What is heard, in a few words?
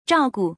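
"zhao4gu", Chinese; zhàogu; 照顾; 世話をする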